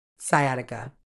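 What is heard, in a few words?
"sciatica", British English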